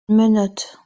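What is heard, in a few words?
"meh1not", Proto-Indo-European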